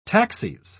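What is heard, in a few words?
"taxis", British English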